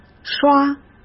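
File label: "刷", Mandarin Chinese; chinese-flashcards; hsk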